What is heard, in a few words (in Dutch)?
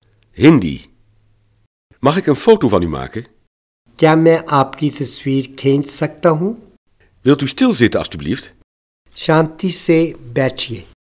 hindi; Mag ik een foto van u maken?